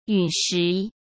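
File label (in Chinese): "陨石", Mandarin Chinese; hsk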